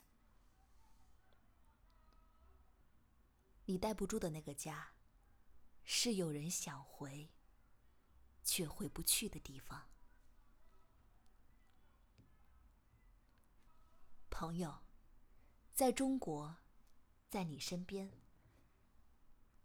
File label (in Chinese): 旁白